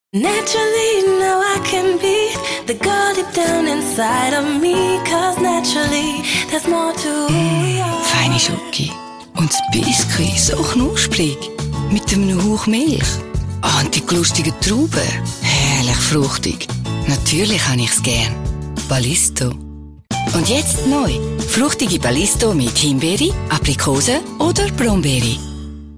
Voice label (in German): werbung, schweizerisch, sprecher